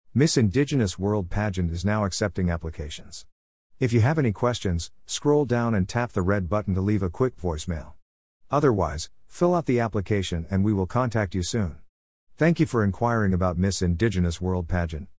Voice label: Voice Message; MIss Indigenous World